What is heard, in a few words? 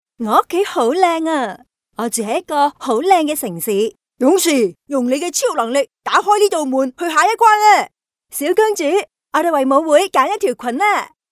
fem children